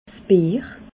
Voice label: "bier", alsace

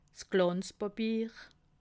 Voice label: "glanzpapier", alsace

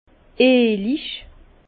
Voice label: "eelig", Bas Rhin; vin; onctueux

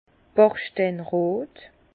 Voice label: "bachsteinrot", Bas Rhin; vin; brique

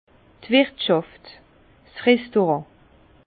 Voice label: "wirtschaft", Bas Rhin; vin; restaurant

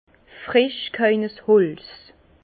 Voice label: "fresch", Bas Rhin; vin; bois coupé

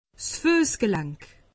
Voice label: "fussgelank", alsace; medecine

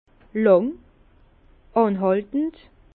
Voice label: "lang", Bas Rhin; vin; long

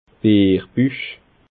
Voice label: "bierbuch", Bas Rhin

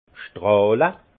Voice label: "strahle", alsace; vin; étinceler

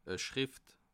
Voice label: "e Schrìft", Bas Rhin; le livre objet

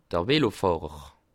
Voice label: "velofahrer", alsace

